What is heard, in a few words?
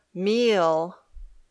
"meal", English